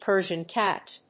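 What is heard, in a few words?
"Persian cat", English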